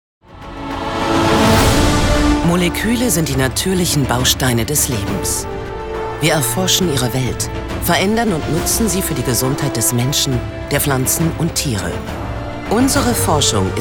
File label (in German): Sprachprobe bayer imagefilm